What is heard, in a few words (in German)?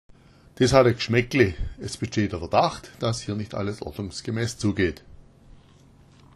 gschmaeckle, schwaebisch